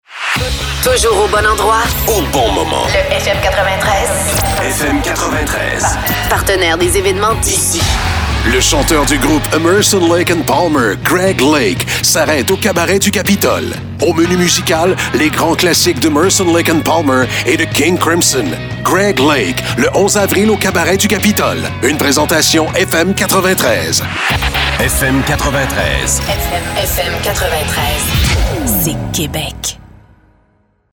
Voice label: radio ad